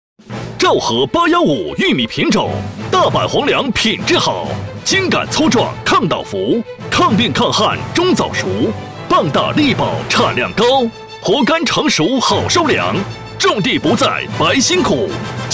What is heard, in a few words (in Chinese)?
男 激情大力广告; 央视农业频道